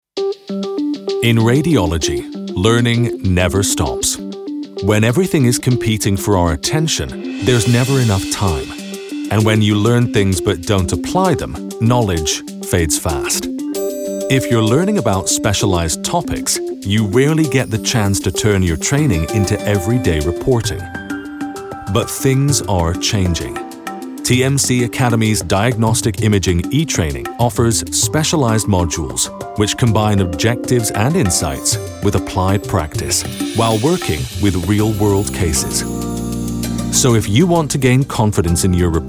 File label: english international medical narration